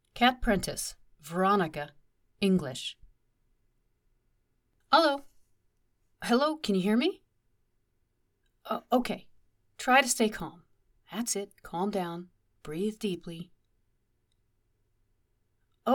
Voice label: video game female authoritative phone conversation telephone directive videogame; Navy